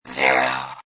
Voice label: Robot Voice "0"